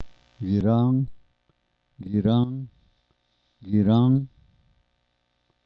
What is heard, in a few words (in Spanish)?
todo, Zapoteco San Pablo Villa de Mitla; adverbios; Vocabulary; sta maria albarradas